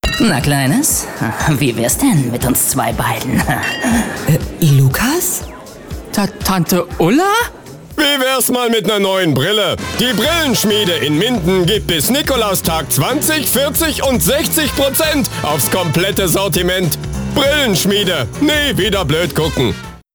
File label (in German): Funkspot; Brillenschmiede